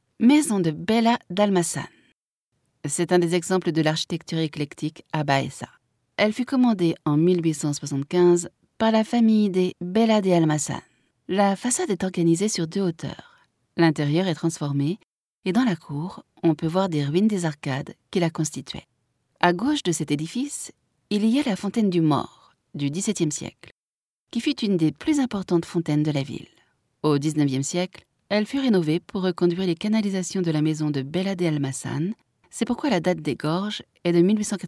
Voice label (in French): audioguia, frances; audioguia baeza